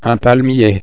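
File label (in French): "palmier", French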